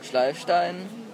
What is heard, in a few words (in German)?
Dialekte; GHV Dialekte 2018